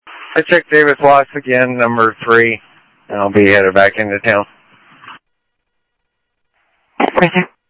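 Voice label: Sheriff Lake Patrol, scanner audio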